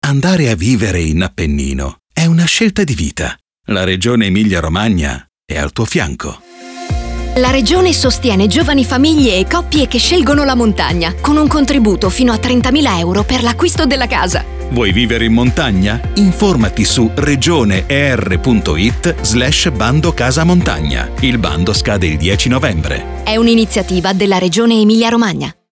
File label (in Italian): RADIO SPOT; REGIONE ER; BANDO CASA MONTAGNA